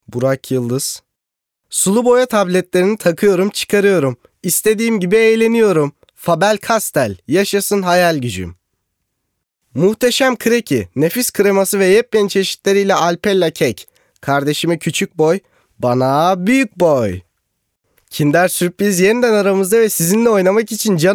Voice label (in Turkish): Reklam Demo